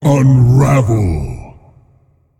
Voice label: Male Elemental Attack